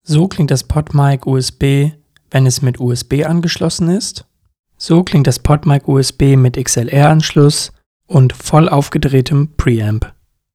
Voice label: Podmic USB, USB vs XLR